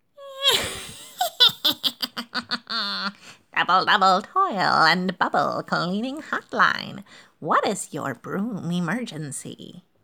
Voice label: Witch